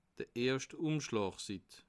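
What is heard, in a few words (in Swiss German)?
"erscht", Bas Rhin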